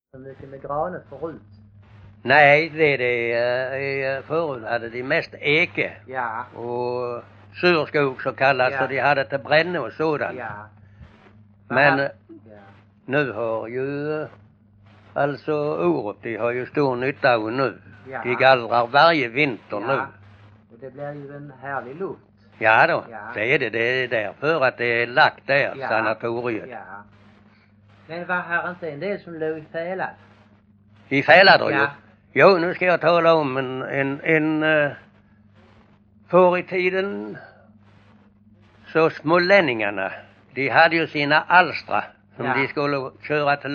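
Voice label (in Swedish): Bosjökloster Skåne, Svenska sagor dialekter; Folkminnen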